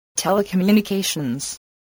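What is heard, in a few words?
"telecommunications", English word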